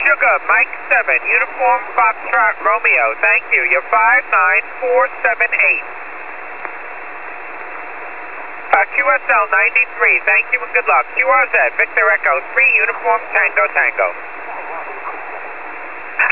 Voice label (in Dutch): zendamateurs USB